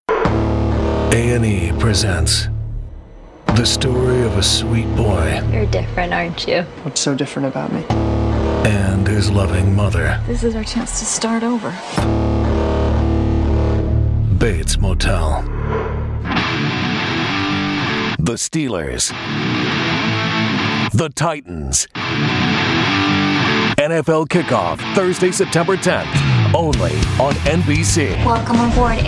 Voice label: TV Promo

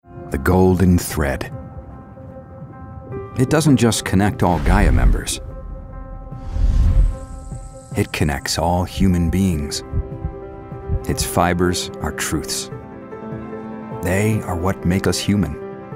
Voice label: Inspirational

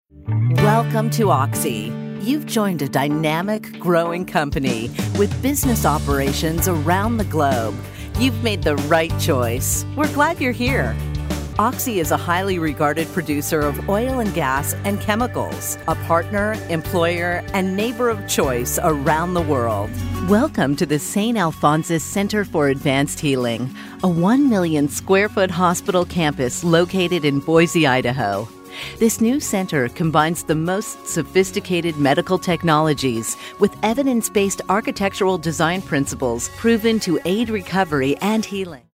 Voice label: Informative

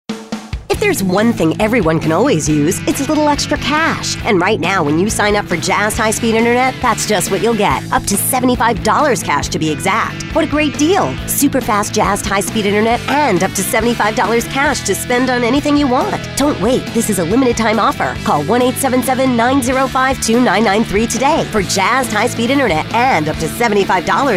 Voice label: Upbeat Perky

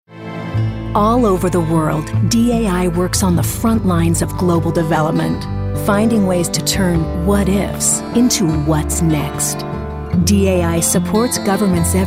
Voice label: Inspirational

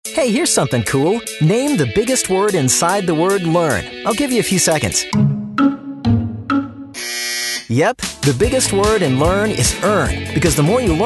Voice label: Friendly

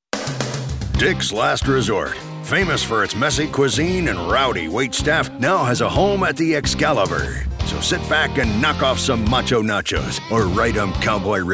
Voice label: tongue in cheek

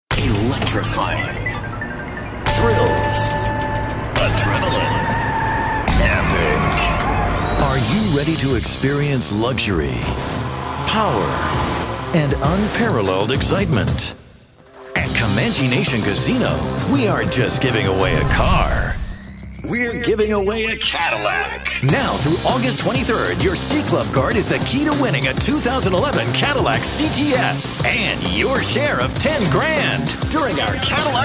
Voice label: High Energy

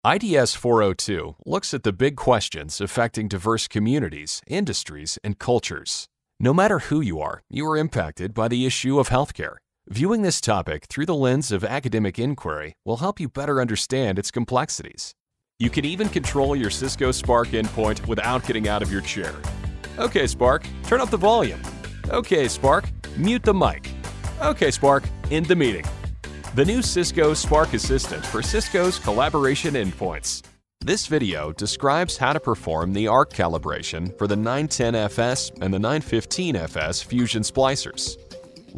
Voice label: eLearning

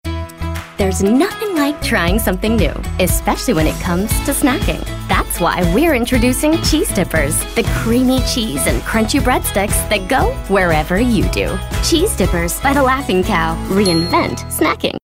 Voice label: Friendly

